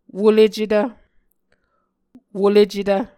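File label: "wolecetv", Muscogee word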